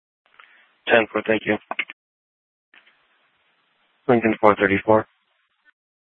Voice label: Sheriff, scanner